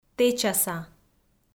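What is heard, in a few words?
"тэчаса", Komi, female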